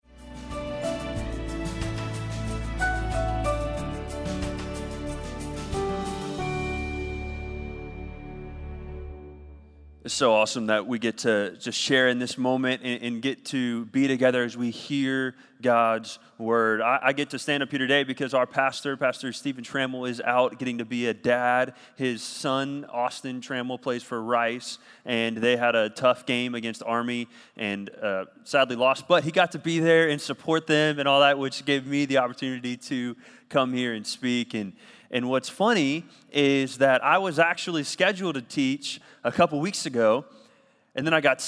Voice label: Sermon English